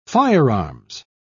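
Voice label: "firearms", British English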